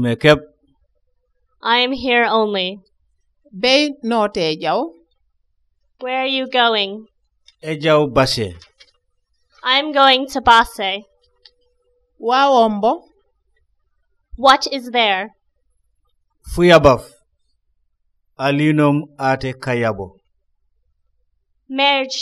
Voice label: Common Conversation, Jola; PeaceCorps

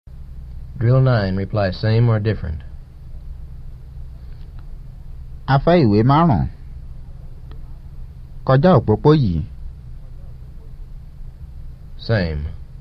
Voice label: Yoruba; FSI; Basic

